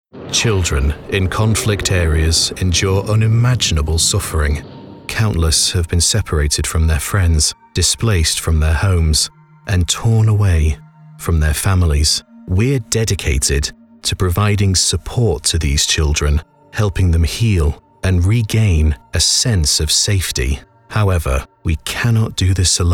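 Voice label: English, male, corporate; accents of england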